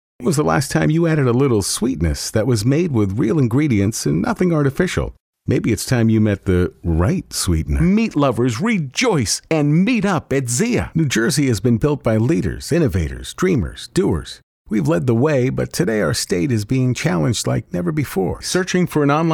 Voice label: Commercial Dry Voice